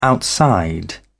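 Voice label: "outside", British English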